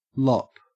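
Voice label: "lop", Australian English; pronunciations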